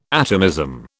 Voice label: "atomism", British

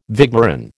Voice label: "vigneron", American

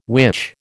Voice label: "witch", American

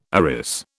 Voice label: "arris", British

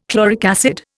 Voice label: "chloric acid", Indian

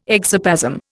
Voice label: "exoplasm", Indian

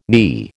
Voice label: "knee", American

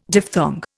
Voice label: "diphthong", Indian

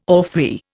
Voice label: "orphrey", Indian

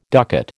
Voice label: "ducat", American

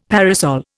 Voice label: "parasol", Indian; Dictionary

